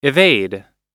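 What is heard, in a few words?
"evade", American English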